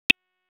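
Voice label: Sheriff's Office Dispatch; WSO DISP 1; wake; p25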